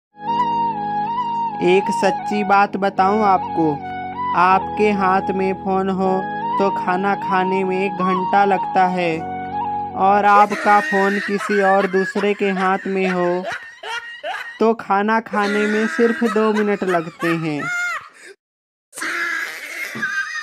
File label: funny shayari; ek sacchi baat bataun